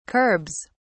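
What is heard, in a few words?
"curbs", British English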